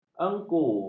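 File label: "engku", Malay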